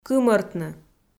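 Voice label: "кымӧртны", Komi, female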